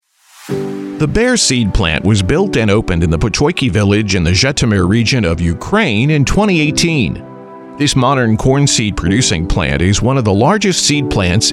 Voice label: Corporate Narration Demo

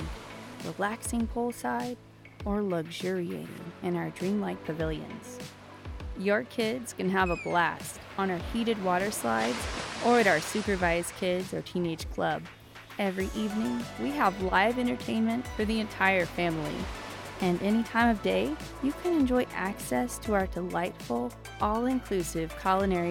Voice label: Professional Voice Over Artist Luxury Resort; Calista Luxury Resort

